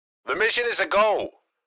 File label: Military radio voice the mission is a go